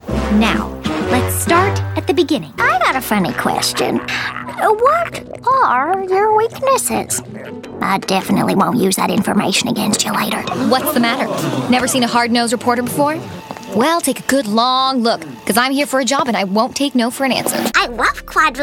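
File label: animation female, Voice Over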